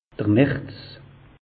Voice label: "marz", alsace; meteo

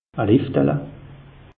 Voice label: "liftele", alsace; meteo

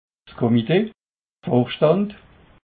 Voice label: "comite", alsace

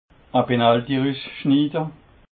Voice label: "penaltyrusschnide", alsace

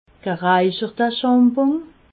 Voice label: "geraicherte", alsace

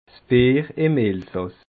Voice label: "bier", alsace

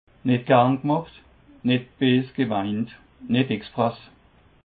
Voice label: "net gern gemacht", Alsace

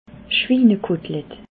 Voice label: "schwinekotlette", alsace

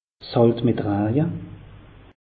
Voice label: "halt mit raje", alsace; meteo

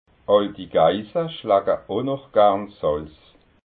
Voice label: "geissa", alsace

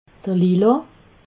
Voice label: "lila", alsace

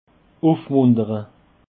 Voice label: "ufmuntere", alsace

